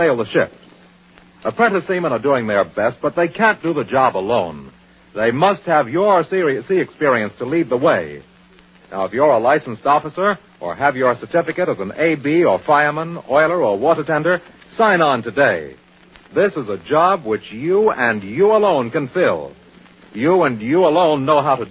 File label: Commercial Ww Ii Merchant Marine